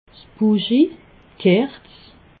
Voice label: "buschi", alsace

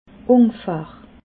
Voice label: "ungefahr", alsace

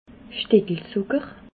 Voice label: "stickelzucker", alsace

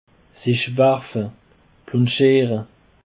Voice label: "werfe", alsace

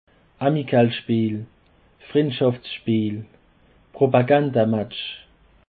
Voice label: "amikalspeel", alsace

